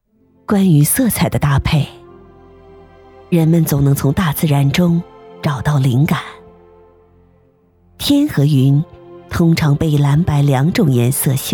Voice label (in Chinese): 扎染宣传片